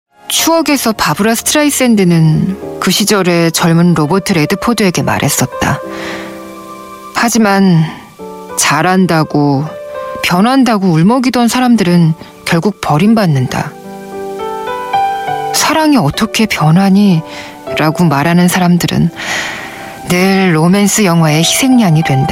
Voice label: Korean

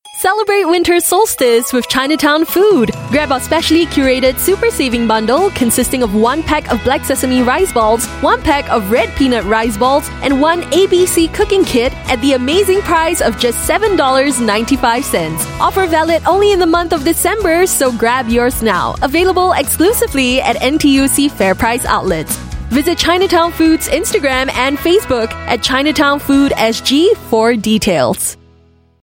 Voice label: Asian English Food Commercial Happy; Chinatown

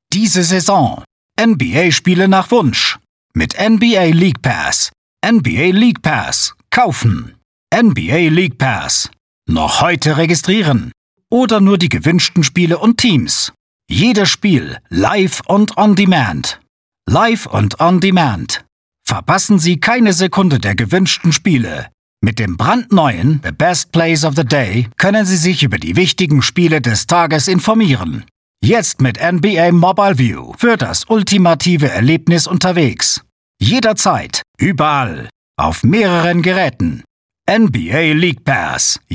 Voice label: German Male; NBA

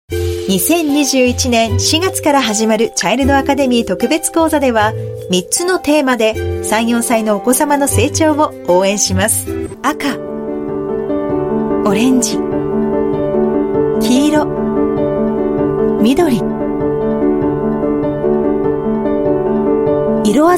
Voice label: Japanese Voice Sample

